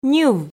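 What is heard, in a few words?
"ньыв", Komi, female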